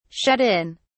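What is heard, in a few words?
"shut in", British English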